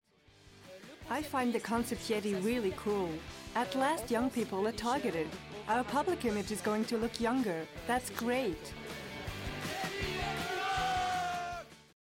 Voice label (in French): voice over anglais, US